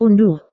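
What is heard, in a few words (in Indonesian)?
"unduh", Indonesian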